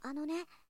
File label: shonen anone